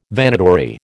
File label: "Vanitory", American